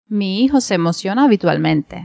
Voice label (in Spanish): mi hijo se emociona habitualmente cuba, pinar del rio femenina; spansk; fonetica